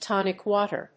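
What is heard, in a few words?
"tonic water", English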